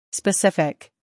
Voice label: "specific", English